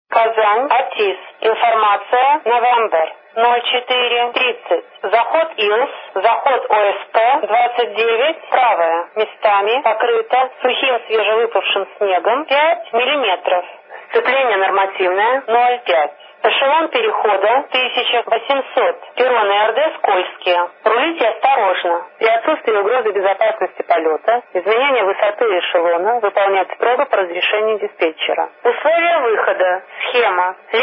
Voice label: atis; kazani

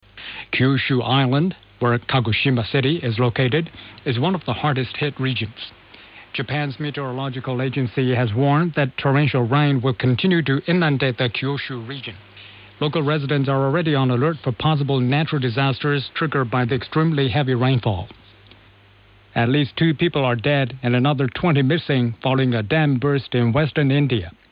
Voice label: AM speech 50-3500

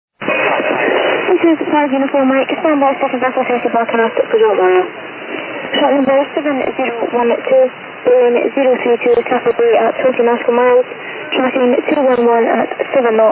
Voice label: broadcast

